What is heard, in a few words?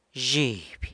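"zhiby", knee, San Lucas Quiaviní Zapotec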